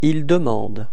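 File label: "demander ils", French; frans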